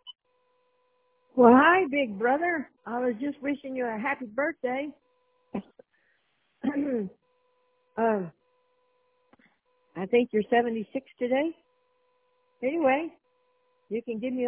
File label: voicemail